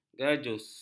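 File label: "gajus", Malay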